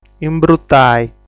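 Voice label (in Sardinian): "Imbrutai", Sardinian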